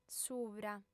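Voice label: "subra", Sardinian, female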